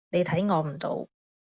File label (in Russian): Гонконгский